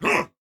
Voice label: Attack, Corpus Venus Male

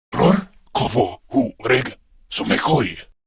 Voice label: Patrol, Male; Warframe; Grineer Male; Battle Barks

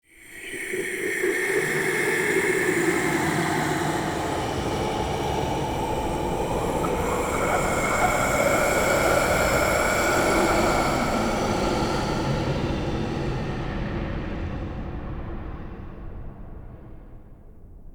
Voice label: Spirit Talk, Ambience Crypt; Champion Crypt

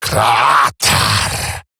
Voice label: Ability Short Cast Eximus Grineer Male; Battle Barks

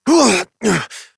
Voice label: Horse Mount Adult, Dialog; Duviri

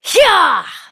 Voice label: Horse Sprint Adult; Duviri

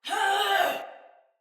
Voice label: Battle Cry Sinecure Soldier Female; Barks; Dialog

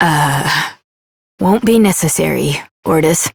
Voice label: Operator Voice, en; New War; Ascend Tower

